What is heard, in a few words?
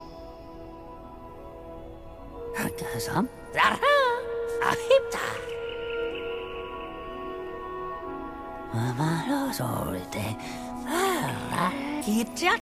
voiceover; Ori and the Blind Forest; gamesoundopedia